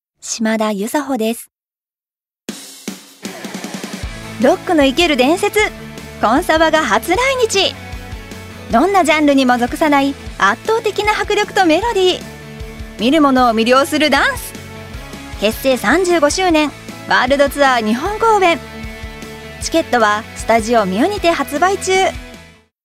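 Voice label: commercial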